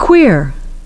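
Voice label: "queer", English; kwiəʳ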